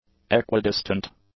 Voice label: "equidistant", English; adjectives